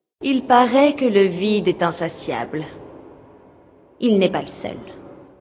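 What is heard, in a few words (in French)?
Female Flirt, voix françaises; Elfe du Vide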